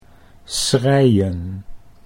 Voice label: "schreien", Dutch